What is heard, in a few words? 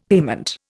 "payment", Indian; Dictionary